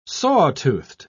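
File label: "saw-toothed", English, British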